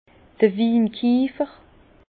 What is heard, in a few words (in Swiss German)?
"winkiefer", Bas Rhin; tonnelier caviste